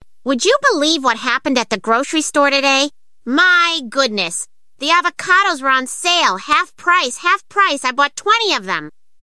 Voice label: Quirky Female English; ZeroShot

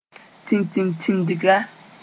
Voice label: "titintĩndga", Moore; locust sp

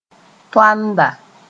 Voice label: "toanda", moore

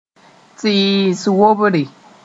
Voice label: "tɩɩs wobre", moore

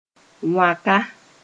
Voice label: "wãka", Mooré; hoe

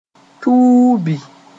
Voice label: "tuubi", moore

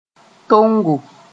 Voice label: "tongo", Mooré

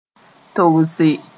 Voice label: "togse", Mooré

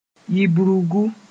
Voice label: "yibrugu", mos-en; kind of shrub

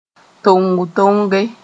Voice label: "tong-tonge", Mooré

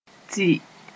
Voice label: "tɩ", moore; and

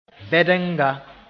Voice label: "bɛdenga", Mooré; earthworm

